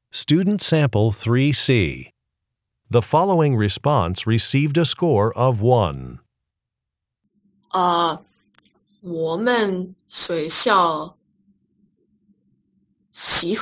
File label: chinese conversation